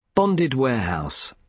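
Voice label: "bonded warehouse", British English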